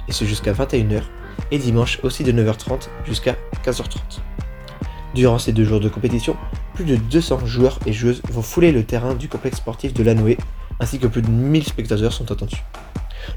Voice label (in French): Podcast annonce finales basket; Lanouee